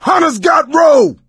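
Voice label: pounced, voice, male; Left 4 Dead 2; coach; hunter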